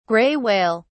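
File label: "gray whale", British English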